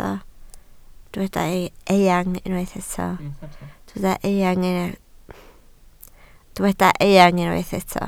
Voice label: "eang", Welsh